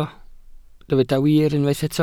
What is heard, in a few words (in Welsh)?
"wir" Welsh